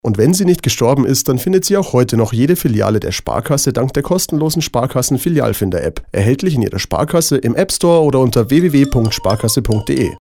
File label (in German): Werbung Reminder; Sparkasse